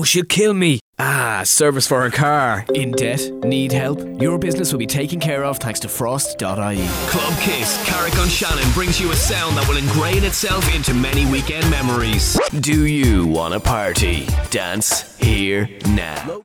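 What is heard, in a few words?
English Irish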